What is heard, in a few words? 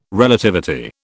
"Relativity", British